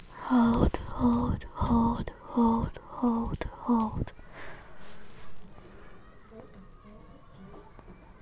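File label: "hold", spoken word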